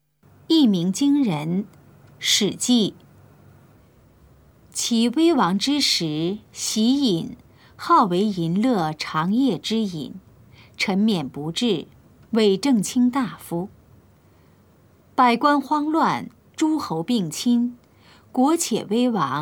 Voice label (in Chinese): putonghua; 一鳴驚人; 普通話